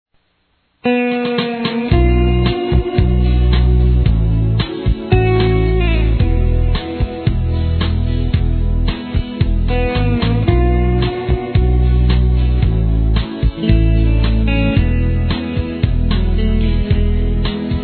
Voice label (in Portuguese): ANIV. CLIENTE F